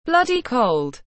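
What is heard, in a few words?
"bloody cold", English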